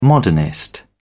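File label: "modernist", British English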